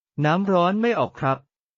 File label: Thai, basic Thai phrases